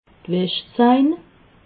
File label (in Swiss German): "waschzain", alsace